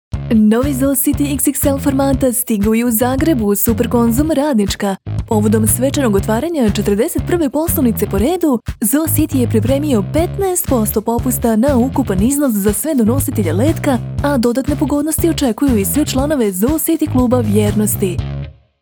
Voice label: croatian commercial; Serbian native voice-over actor from Belgrade